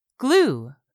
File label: "glue", English; 3000 words